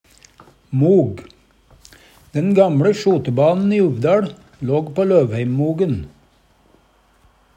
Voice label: mog, Numedalsmål; Substantiv hankjønn

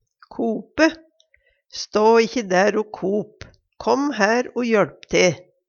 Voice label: kope, Numedalsmål

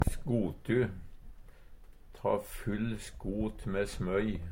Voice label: "skotu", Numedalsmål; Substantiv hokjønn

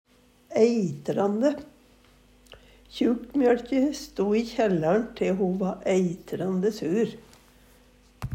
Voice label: "eitrande", Numedalsmål

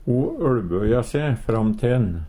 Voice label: "øLbøje se fram", Numedalsmål; trenje seg fram; Uttrykk

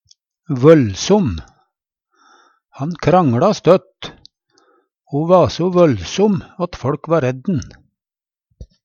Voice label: vøllsom, Numedalsmål; Han krangLa støtt o va so vøllsom at føLk va redd'n.; Ordklasse: Adjektiv

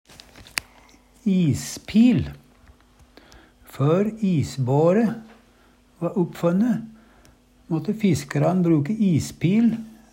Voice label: ispil, Numedalsmål

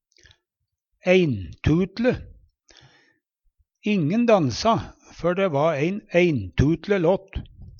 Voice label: "eintutle", Numedalsmål; dæ va ein eintutle låt; T.d. brukt om musikk

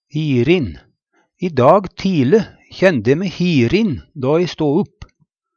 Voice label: hirin, Numedalsmål; I dag tile kjende e me hirin då e sto upp.

